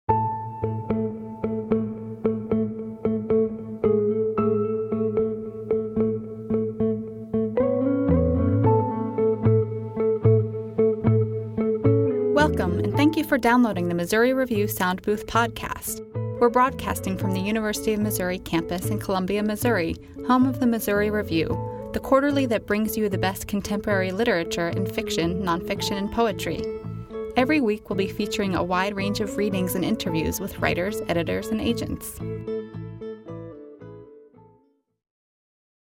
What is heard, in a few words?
Women Roundtable